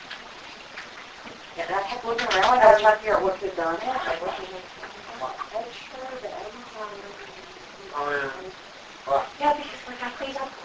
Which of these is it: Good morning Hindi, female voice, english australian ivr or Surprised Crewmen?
female voice